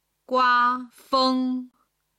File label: "gua1feng1", Chinese